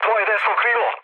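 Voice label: Serbian right wing mood low, speech; War Thunder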